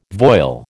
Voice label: "voile", American